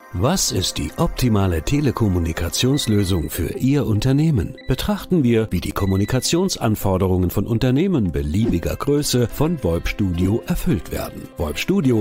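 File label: German, male, ad; voice over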